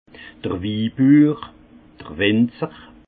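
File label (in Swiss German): "wibuur", Alsace Haut Rhin; vin; producteur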